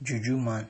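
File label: "jujuman", Nigerian Pidgin, male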